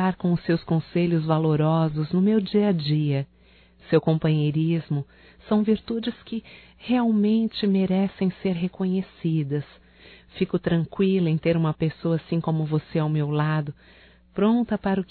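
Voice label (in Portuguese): Agradecimento amizade female, Só Voz; Telemensagens; AGRADECIMENTOS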